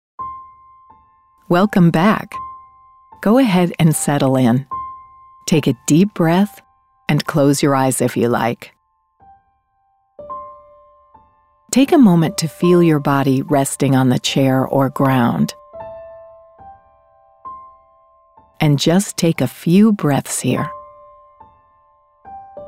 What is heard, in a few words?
mindfulness meditation explainer VO